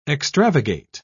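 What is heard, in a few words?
"extravagate", British English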